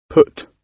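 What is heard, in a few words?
"put", British English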